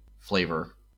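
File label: "flavor", US English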